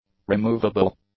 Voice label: "removable", English; adjectives

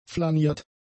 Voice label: "flaniert", German; adjectives

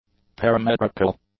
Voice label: "parametrical", English; adjectives

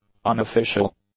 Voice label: "unofficial", English; adjectives

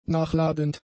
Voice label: "nachladend", German; adjectives

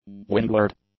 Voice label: "windward", English; nouns

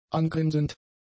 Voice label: "angrinsend", German; adjectives

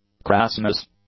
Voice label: "crassness", English; nouns

